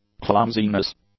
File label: "clumsiness", English; nouns